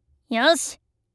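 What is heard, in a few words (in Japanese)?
"よし", Japanese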